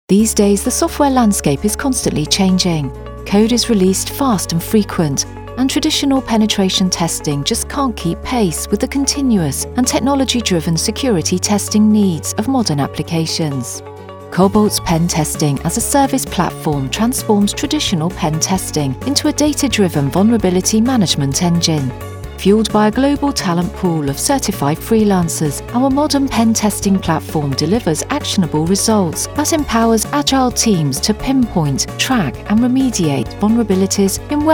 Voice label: Corporate Narrative